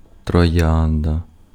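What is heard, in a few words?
Ukrainian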